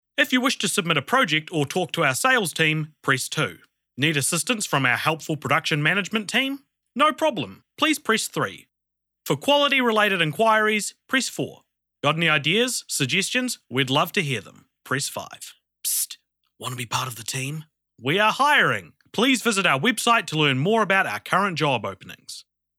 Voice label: NZ Voice Phone